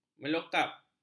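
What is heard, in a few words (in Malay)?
"melokap", Malay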